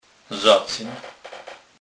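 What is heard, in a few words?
"zacyn", Kurpie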